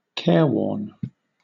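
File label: "careworn", British English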